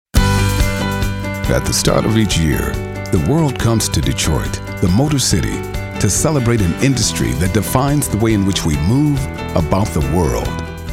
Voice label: men commercial; Energetic and authoritative African American talent.

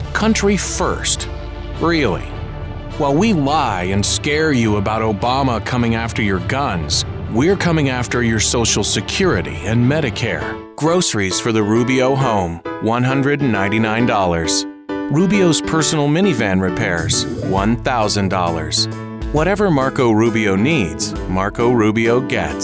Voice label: men political; Agreeable, effective, casual, & clear.

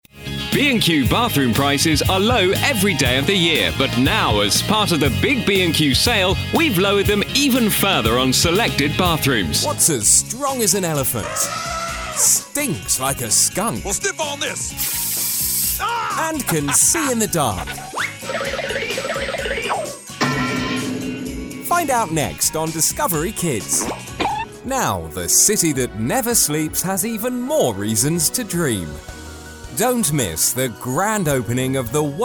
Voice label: british commercial; BRITISH » Musical British announcer voice.

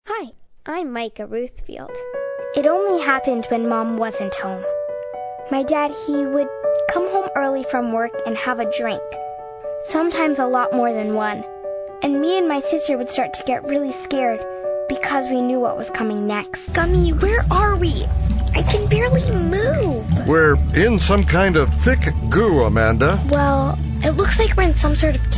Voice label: kids commercial; Bright, inquisitive, and well-spoken.

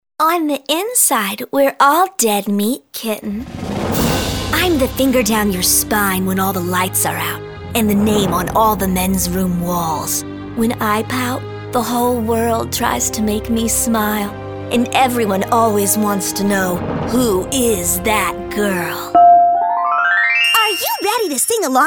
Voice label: women animation; Musical perky and young, earnest and warm, natural.

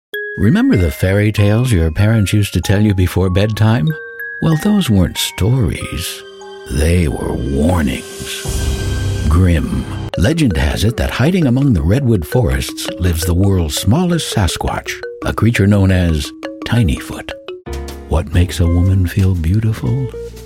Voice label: men commercial; Deep, rich, and mature.

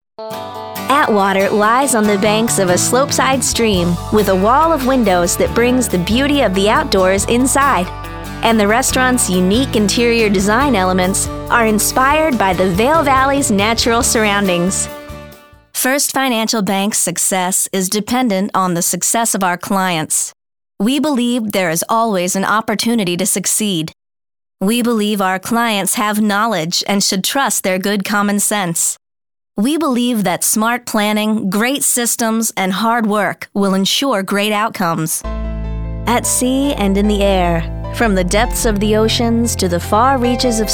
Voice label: women narration; Musical perky and young, earnest and warm, natural.

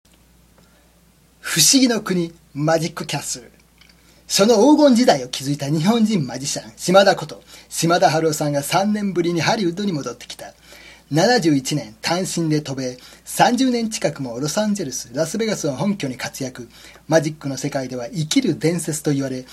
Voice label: japanese commercial; Informational and clear Japanese voice.

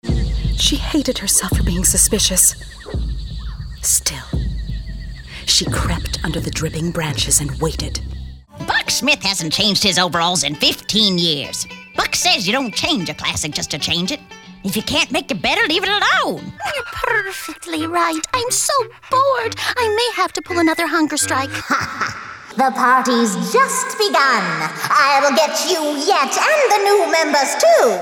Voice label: women animation

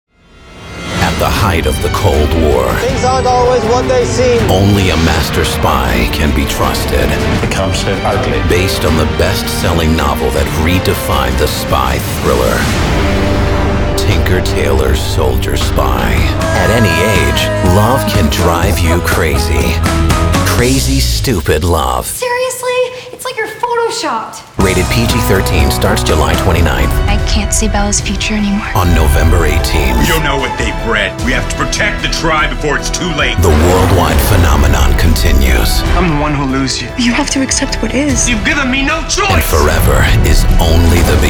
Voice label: men trailers; Understated. Inferred. Fervor.

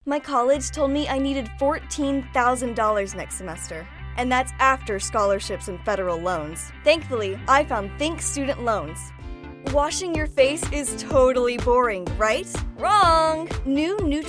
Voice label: kids commercial; She's a teen who is fun, mature, passionate, charismatic, upbeat, and authentic. She sings, too!

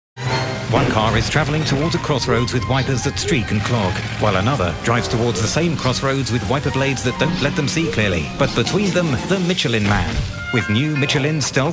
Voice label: british commercial; BRITISH » Smooth, enthusiastic, assured.

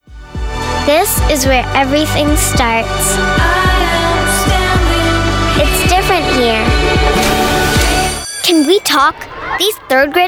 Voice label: kids commercial; Real, sweet, fun, cute, emotive.

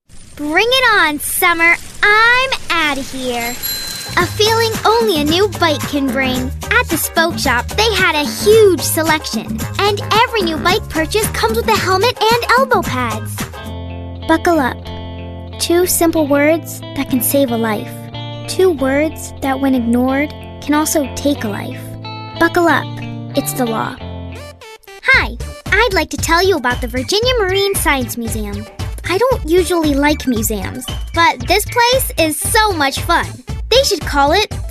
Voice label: kids commercial; Smart and expressive with terrific diction, fun energy, believability and range from tiny to teen!

